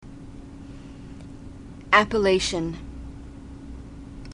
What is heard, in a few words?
"appellation", glossary